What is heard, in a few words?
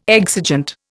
"exigent", Indian